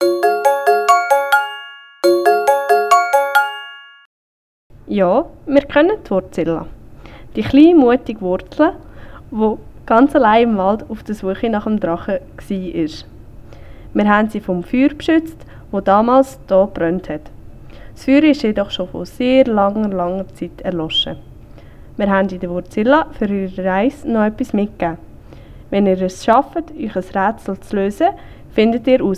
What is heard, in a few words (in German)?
Posten Baumfeen mit Jingle